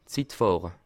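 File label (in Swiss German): "zitfahre", Bas Rhin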